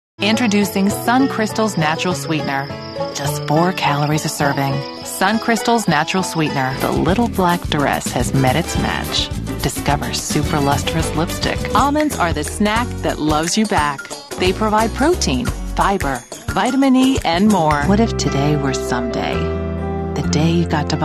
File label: commercial